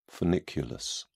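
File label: "funiculus", British English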